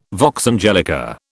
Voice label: "vox angelica", British

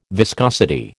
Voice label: "viscosity", American

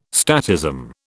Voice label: "statism", British

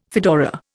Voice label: "fedora", Indian

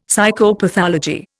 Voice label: "Psychopathology", Indian; Dictionary

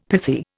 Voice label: "pithy", Indian; Dictionary

